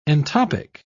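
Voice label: "entoptic", English, British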